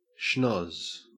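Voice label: "schnozz", Australian English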